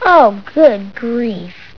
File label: grief